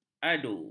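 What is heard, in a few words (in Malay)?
"adu", Malay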